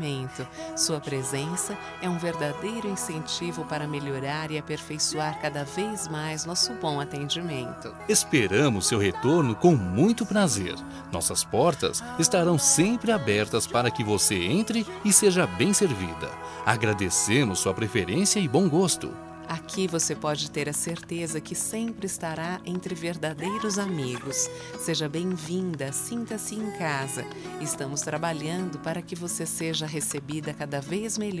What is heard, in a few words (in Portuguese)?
Cliente medica, Telemensagens Empresarial; fem; Telemensagens 2017